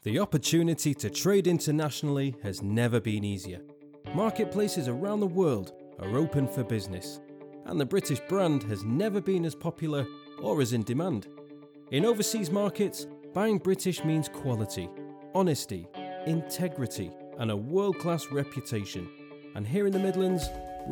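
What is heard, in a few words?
General New Narration Commercial E Learn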